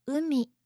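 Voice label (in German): "umi", japanische Mädchennamen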